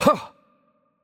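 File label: Korean attack light voice; bloom minigame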